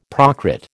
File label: "Prakrit", American